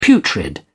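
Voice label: "putrid", British English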